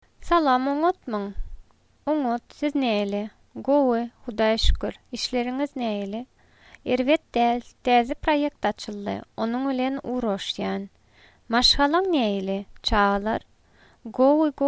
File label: greetings, turkmen